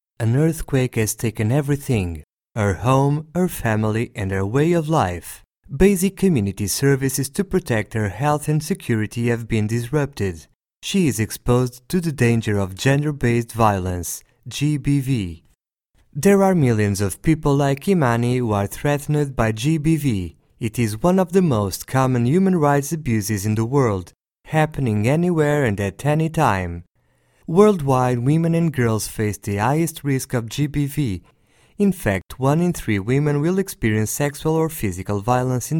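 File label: Portuguese English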